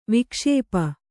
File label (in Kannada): "ವಿಕ್ಷೇಪ", Kannada